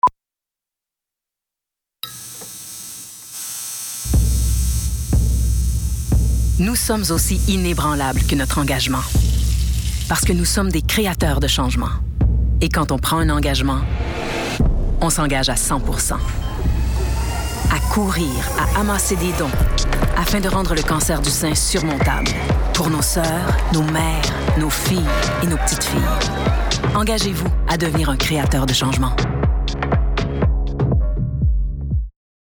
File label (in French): FR TV pub VO; CIBC; voix hors champ